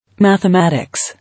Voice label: "Mathematics", English

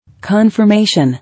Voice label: "Confirmation", English

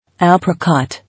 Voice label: "Apricot", English

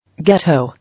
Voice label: "Ghetto", English

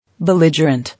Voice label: "Belligerent", English

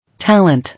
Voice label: "Talent", English

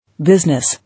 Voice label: "Business", English

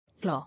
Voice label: "Law", English

